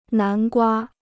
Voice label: "南瓜", Chinese